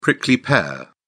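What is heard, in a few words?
"prickly pear", British English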